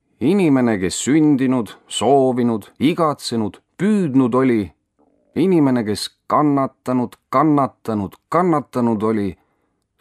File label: Estonian